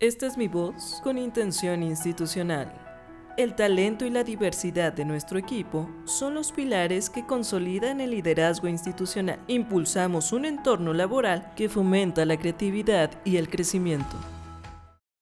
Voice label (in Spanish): Institucional Musicalizada